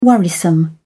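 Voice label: "worrisome", British English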